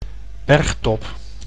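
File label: "bergtop", Dutch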